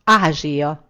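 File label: "asia", Hungarian; gcompris; geography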